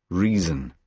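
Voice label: "reason", British English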